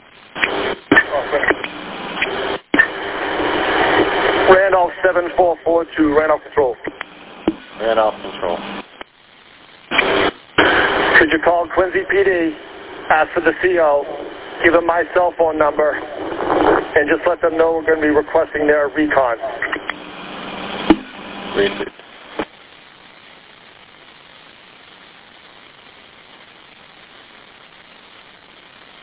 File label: Police Radio